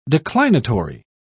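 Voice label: "declinatory", British English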